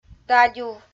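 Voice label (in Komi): "дадюв", Komi, female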